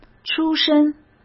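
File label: "出身", Mandarin Chinese; chinese flashcards; hsk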